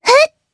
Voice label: Vox Casting, jp; Lewsia; Kingsraid